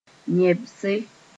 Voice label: "yẽbse", Mooré; parotitis

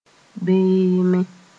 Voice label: "beeme", Mooré

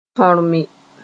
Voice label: "tõrme", moore

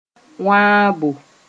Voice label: "wãabo", Mooré; breaking

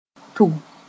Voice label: "tʋg", moore

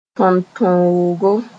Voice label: "tõntõogo", Mooré

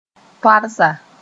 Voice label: "toarsa", moore

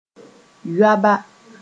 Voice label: "yoaba", Mooré

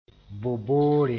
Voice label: "boboore", moore; Bobo language

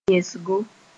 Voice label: "yẽsgo", moore

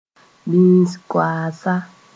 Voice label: "bĩis-koaasa", Mooré

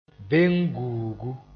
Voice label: "beng-guugu", Moore

